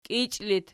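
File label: "kiichi" locative, Archi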